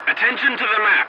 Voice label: voice message attention to point, english